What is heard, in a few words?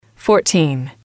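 "fourteen", English, female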